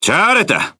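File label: Speech Quick Commands Good Game, Korean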